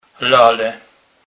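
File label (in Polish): "lale", Kurpie dialect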